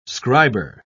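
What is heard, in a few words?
"scriber", British English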